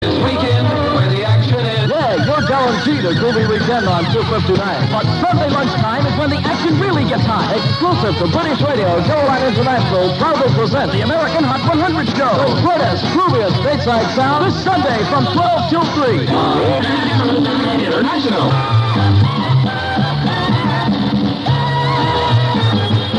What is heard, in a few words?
promo; RCS; American Hot 100 Show